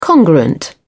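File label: "congruent", British English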